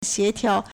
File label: "xie2tiao2", Chinese